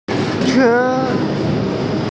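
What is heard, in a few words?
"qie1", Mandarin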